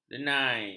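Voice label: "denai", Malay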